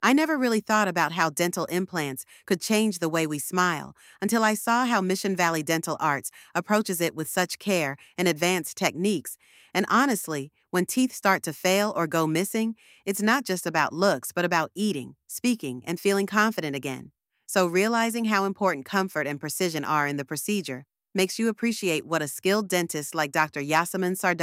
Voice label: voiceover; Dental Implants Explained; Mission Valley Dental Arts; Explain benefits and procedure of dental implants, emphasizing patient comfort; Kaylin AI